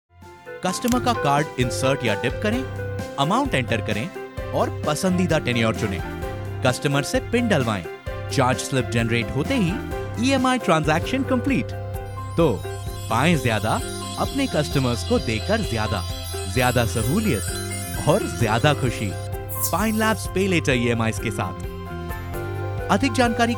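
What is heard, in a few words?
hindi explainer videos, male; Hindi Voice Actor; Foreign Language Voice Samples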